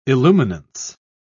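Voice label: "illuminance", British English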